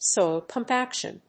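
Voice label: "Soil compaction", English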